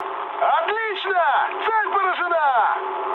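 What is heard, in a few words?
Russian bomb success mood med, speech; War Thunder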